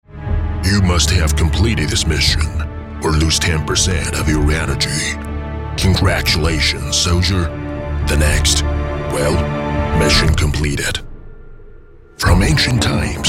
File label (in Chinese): 英男, 英文游戏, 游戏叙述